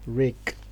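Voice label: "rake", Nigerian Pidgin, male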